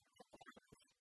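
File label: "аттьӧ корӧмысь", Komi, female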